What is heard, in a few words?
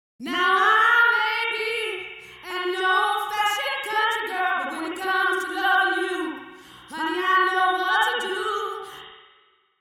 voice processed modified, spring